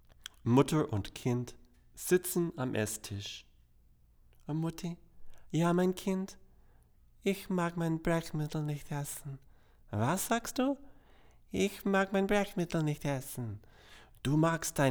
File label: German, Neumann KM84